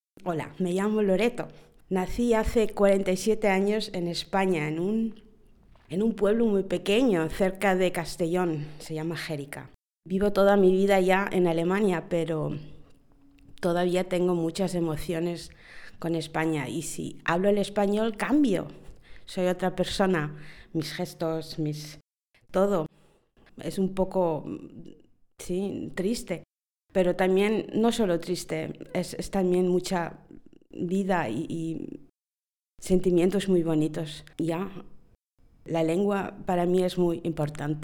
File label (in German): Spanisch